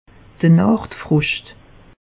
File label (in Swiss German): "nacht", alsace; meteo